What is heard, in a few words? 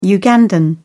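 "ugandan", British English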